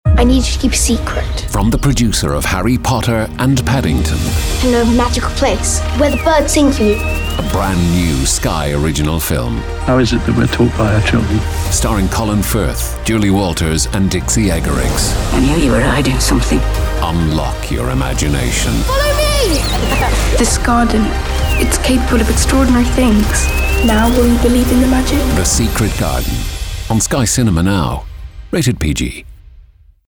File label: english irish movie trailers